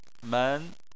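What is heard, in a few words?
"men", Chinese, second tone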